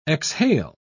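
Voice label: "exhale", English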